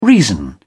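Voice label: "reason", British English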